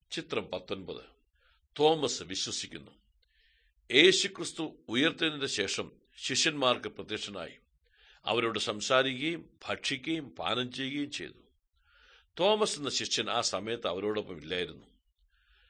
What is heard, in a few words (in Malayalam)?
good news malayalam, drama; world bible translation center nt drama